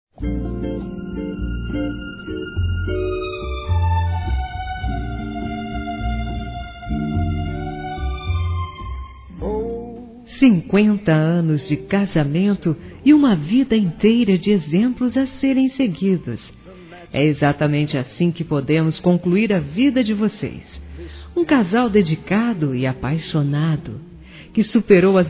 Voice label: Bodas de Ouro Feminino; Telemensagens; Telemensagens 2017

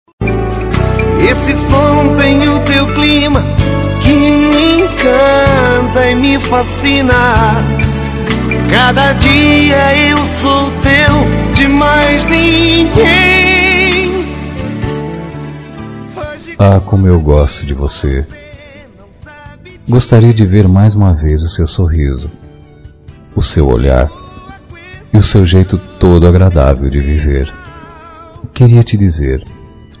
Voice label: E DO SEU AMOR QUE EU VIVO MASC, Telemensagens; Telemensagens 2017

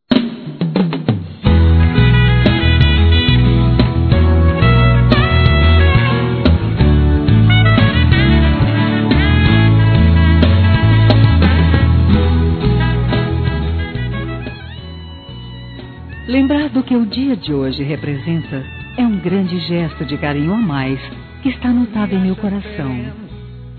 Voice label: ANIV. SOGRO F

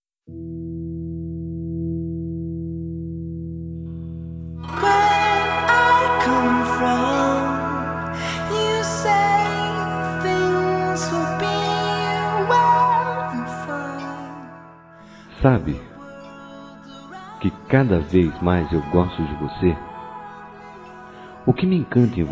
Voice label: NEUTRA M; Telemensagens 2017

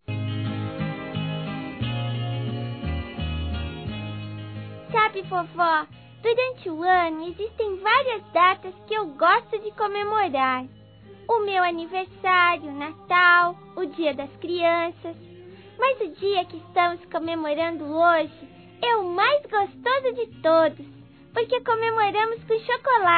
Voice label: FELIZ PASCOA VOVO (VOZ INFANTIL); Telemensagens

